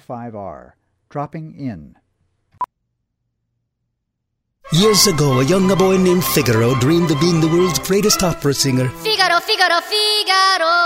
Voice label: radio; Figaros Pizza